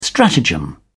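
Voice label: "stratagem", British English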